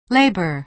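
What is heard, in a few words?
"labor", American English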